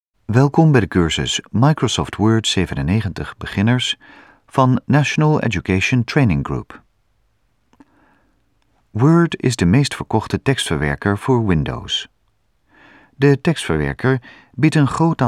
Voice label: Dutch